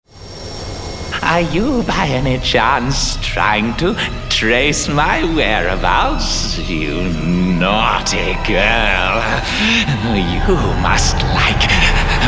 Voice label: Male Video Game VO